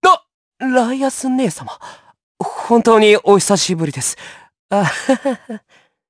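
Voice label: voice dia, voices heroes, Japanese; Neraxis; kingsraid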